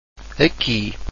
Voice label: "aqui", Portuguese; akee